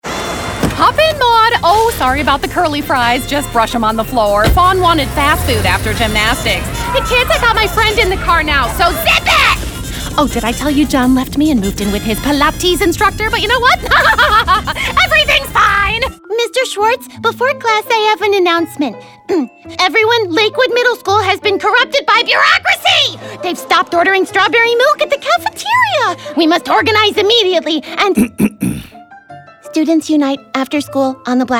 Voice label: animation character